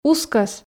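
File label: "ускӧс", Komi, female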